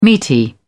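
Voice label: "meaty", British English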